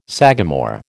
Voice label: "sagamore", American